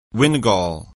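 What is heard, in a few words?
"windgall", English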